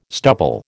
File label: "stubble", American